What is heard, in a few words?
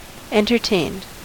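"entertained", US English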